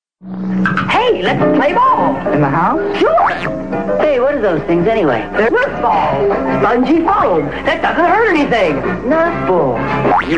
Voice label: Commercial; Kool-Aid; 1969